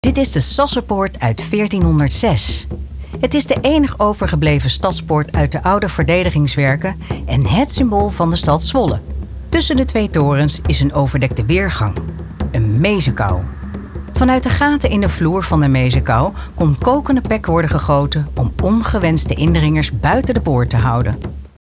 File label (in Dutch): Audiotour; Sassenpoort